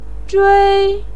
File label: "zhuī", voice pinyin